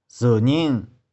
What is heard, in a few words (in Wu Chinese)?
"昨日", 台州語; 昨日。[yesterday]; 玉環台山